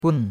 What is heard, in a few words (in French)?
"gun4", chinois; Cours-de-Chinois